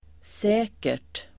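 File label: "säkert", Swedish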